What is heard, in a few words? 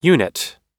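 "unit", English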